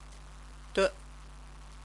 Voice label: "de", Chinese

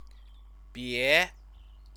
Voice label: "bie", Chinese; 别; do not; adverb

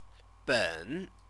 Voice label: "ben", Chinese; (measure word)

